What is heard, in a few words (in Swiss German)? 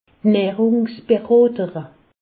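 "nahrungsberotere", Alsace, Haut Rhin; medecine; diététicienne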